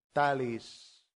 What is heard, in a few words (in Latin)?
"talis", latin; santillana